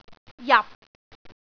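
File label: "jap6", Cantonese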